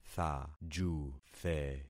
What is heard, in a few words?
"thajuthe", concat syllables; Affixing experiment